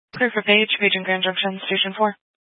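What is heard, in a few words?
Fire Dispatch, Scanner audio recording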